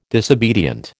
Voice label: "disobedient", American